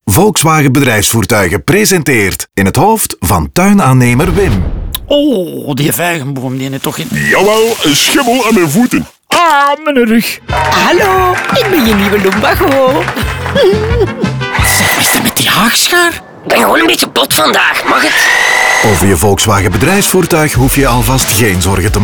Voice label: Radiospot; Minder kopzorgen voor vakmannen dankzij de saloncampagne van Volkswagen Bedrijfsvoertuigen